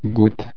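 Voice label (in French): "goutte", French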